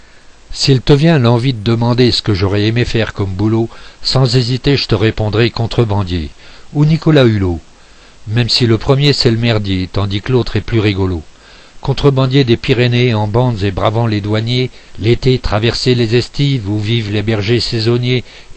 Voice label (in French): Voice Slam; C que j voulais faire